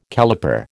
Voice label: "caliper", American